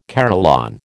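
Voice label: "carillon", American English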